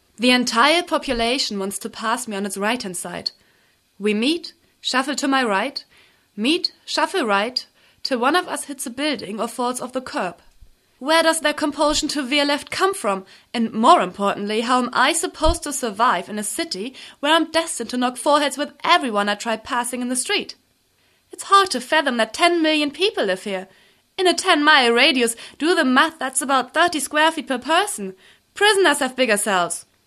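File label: German English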